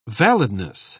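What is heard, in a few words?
"validness", British English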